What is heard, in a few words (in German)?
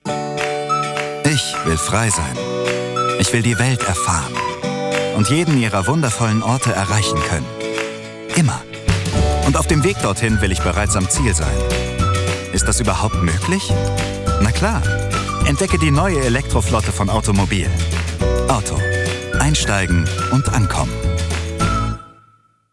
Werbung Auto; LOFT VOICES